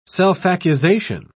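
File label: "self-accusation", British English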